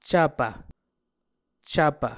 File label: "cvpv", muscogee